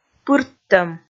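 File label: "пырттӧм", Komi, female; kpv audio dict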